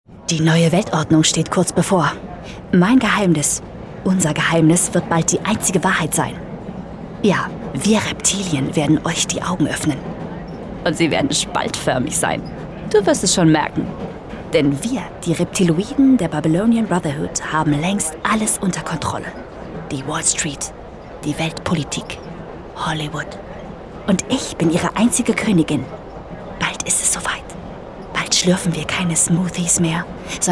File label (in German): Comic Reptiloidenkönigin; LOFT VOICES